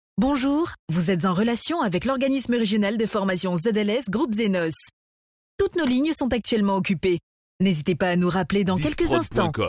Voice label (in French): Audiotel Ligne occupee Voix, telephonie svi; ZLS Groupe Zenos